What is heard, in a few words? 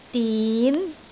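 "din2", Cantonese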